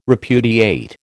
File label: "repudiate", American